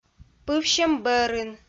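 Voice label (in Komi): "пывсьӧм бӧрын", Komi, female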